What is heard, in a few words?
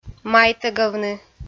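"майтӧгавны", Komi, female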